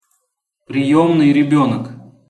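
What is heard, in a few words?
"priemnyj rebenok", Russian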